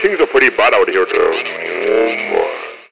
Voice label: Things Are Pretty Bad, Vox FX; Total Drum&Bass